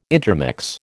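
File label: "intermix", American English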